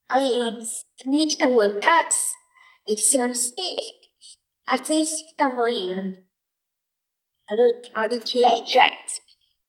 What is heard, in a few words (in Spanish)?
voz amable, storytelling